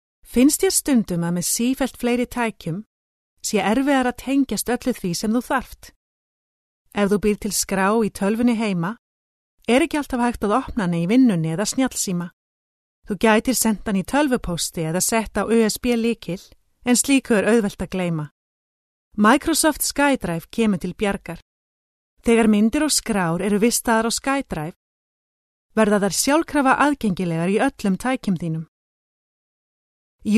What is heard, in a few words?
Icelandic F corp